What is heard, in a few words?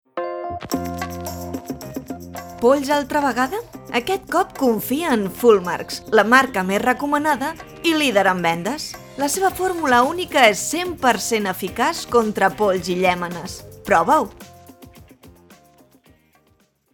Catalan